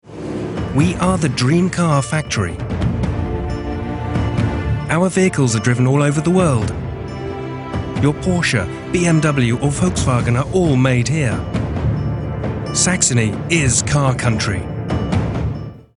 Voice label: uk corporate